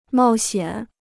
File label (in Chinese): "冒险", Chinese